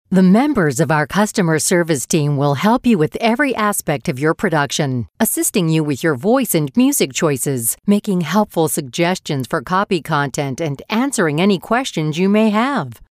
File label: Female